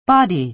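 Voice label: "body", American English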